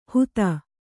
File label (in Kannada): "ಹುತ", Kannada